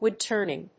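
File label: "wood turning", English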